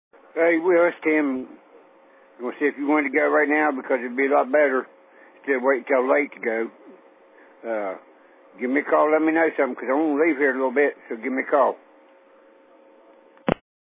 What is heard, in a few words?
Voicemail